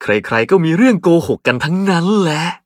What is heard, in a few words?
level up, th; Cookie Run